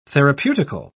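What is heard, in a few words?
"therapeutical", British English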